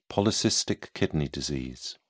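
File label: "polycystic kidney disease", British English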